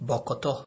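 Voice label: "bokoto", Nigerian Pidgin, male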